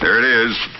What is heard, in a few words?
"there it is", announcer